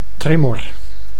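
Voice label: "tremor", Dutch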